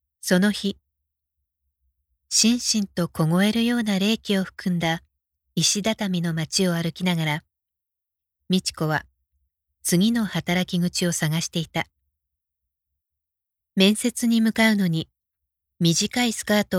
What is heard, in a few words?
japanese audiobooks